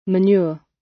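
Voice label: "manure", British; Flora and fauna, agriculture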